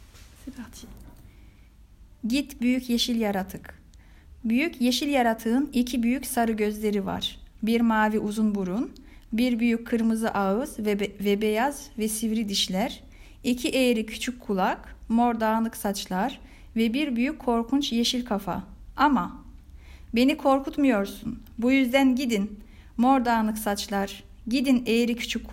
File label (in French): grand monstre vert en turc